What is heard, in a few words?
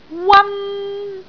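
"wan1", Cantonese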